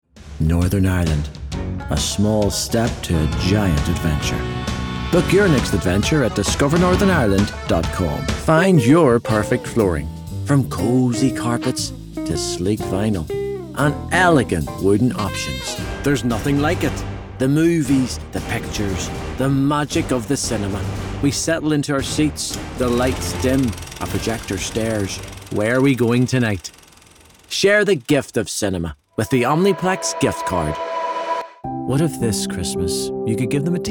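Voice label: Male Commercial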